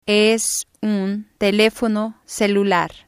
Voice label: "es un telefono celular", spanish